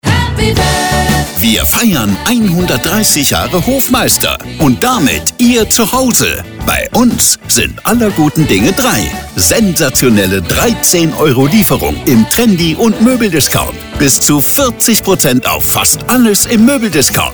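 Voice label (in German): Radiowerbung Jubiläum; Moebel Hofmeister 130 Jahre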